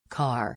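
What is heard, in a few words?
"car", English pronunciation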